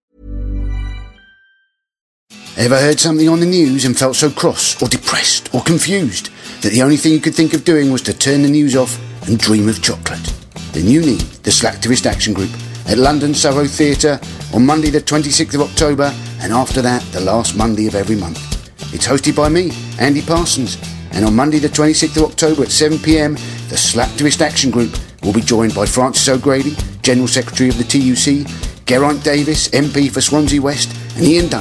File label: Voiceover; Andy Parsons